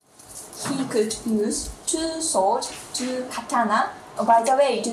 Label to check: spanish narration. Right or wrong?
Wrong